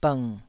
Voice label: "bng3", taiwanese syllables

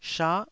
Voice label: "sha9", taiwanese Syllables

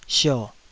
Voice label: "sio3", Taiwanese syllable

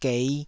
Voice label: "gei5", Taiwanese

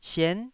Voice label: "sien9", Taiwanese syllable

